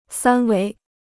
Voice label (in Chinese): "三维", Chinese